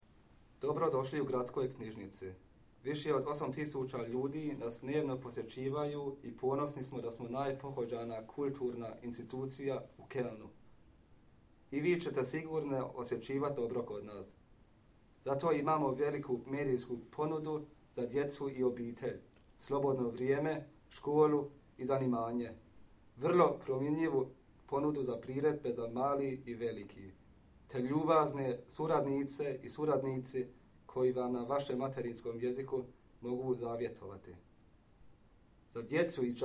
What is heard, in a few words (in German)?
kroatisch laut